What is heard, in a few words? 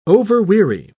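"overweary", English, British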